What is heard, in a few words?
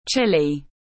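"chilly", English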